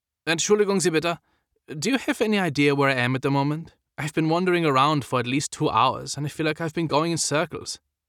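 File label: German, accent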